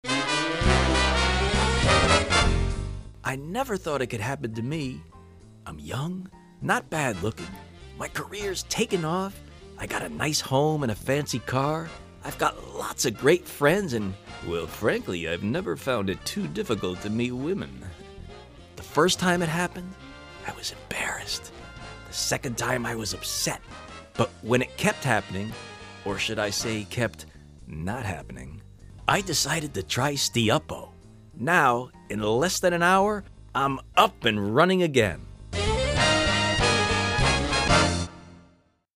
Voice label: drug company, broadcast